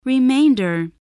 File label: "remainder", spelling voice